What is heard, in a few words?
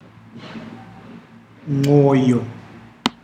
"heart", ndau